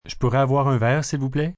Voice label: Drink Please, Restaurant, bar, foreign, male, French; Talking Sound Effects